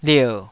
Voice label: "lier3", Taiwanese